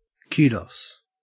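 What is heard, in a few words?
"kudos", Australian English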